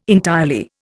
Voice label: "entirely", Indian